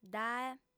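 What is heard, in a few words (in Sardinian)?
"dae", female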